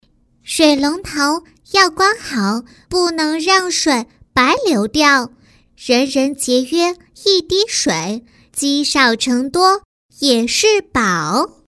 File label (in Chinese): 女童, 水龙头